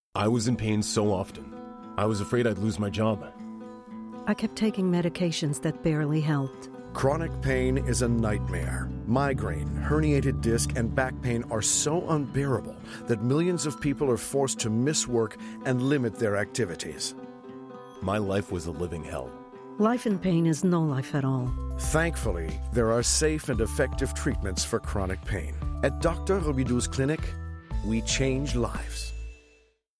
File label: Pub Anglais TV